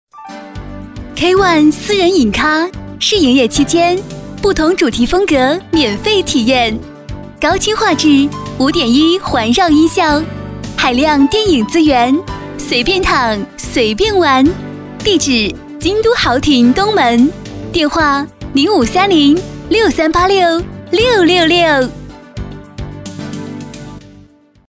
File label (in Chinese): 女 广告 温柔甜美; K.one私人影咖